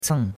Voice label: "ceng4", chinois; Cours-de-Chinois

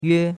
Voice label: "yue1", chinois; Cours-de-Chinois

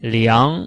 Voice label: "liang2", chinois

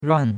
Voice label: "ruan4", chinois; Cours-de-Chinois

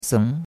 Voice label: "seng2", chinois; Cours-de-Chinois

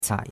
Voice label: "cai3", chinois; Cours-de-Chinois

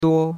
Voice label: "duo1", chinois; Cours de Chinois

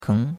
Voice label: "keng2", chinois; Cours de Chinois

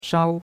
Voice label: "shao1", chinois; Cours-de-Chinois

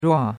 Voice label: "rua4", chinois; Cours-de-Chinois

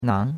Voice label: "nang2", chinois; Cours-de-Chinois

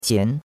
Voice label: "qian2", chinois; Cours-de-Chinois

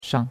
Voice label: "shang5", chinois; Cours-de-Chinois

